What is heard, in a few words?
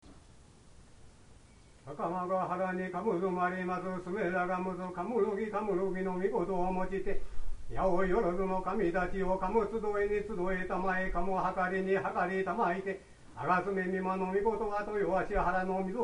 norito; kaichi